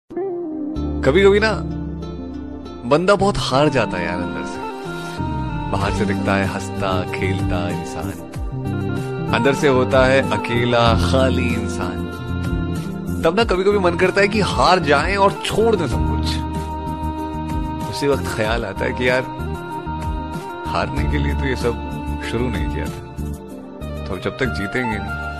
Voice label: Shayari Ringtone; Jab Tak Jeety Gay Nahi